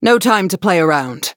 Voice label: touch, en